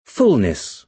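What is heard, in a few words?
"fullness", British English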